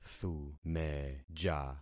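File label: "thumeja", concatenated syllables; Affixing experiment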